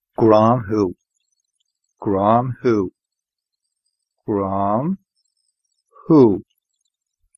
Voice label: i love you irish

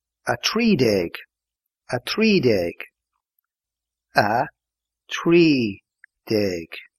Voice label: thirteen irish

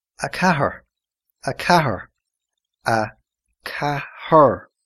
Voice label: four irish

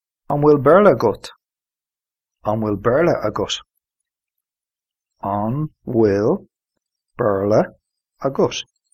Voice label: do you speak english irish

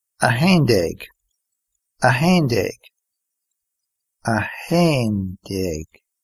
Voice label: eleven irish

